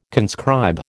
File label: "conscribe", American